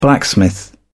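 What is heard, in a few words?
"blacksmith", British English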